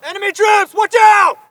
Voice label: spot; bf3